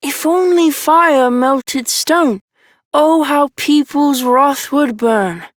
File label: Child Rhymes Anger Child M, Childrens Rhymes; Duviri